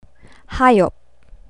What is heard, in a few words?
"hayop", Tagalog